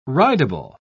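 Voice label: "ridable", British English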